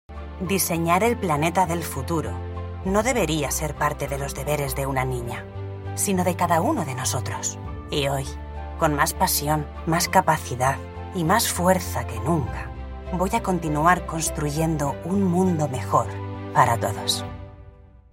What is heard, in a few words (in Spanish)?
Corporativo emotivo cercano, locutora; Iberdrola